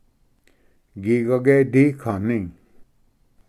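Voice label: "gigage dikani", Cherokee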